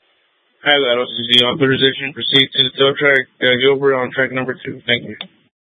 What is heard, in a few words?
Public Transit System Light Rail Ops, scanner